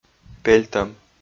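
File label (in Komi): "пельтӧм", Komi, male; audio dict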